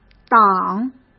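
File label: "挡", Mandarin Chinese; chinese-flashcards; hsk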